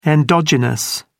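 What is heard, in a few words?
"endogenous", British English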